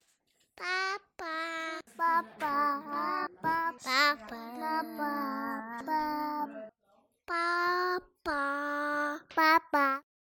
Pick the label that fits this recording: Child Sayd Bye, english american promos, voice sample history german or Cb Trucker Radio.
Child Sayd Bye